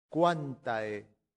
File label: "quantae", latin; santillana